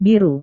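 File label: "biru", Indonesian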